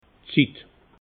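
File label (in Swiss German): "zit", Alsatian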